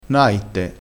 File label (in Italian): "naitte", crespinese